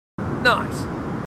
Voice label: marines, aussie; h2dialogue